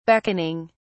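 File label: "beckoning", British English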